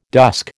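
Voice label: "dusk", American English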